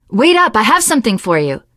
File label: alert give item, teen girl, survivor voice; source engine; left 4 dead 2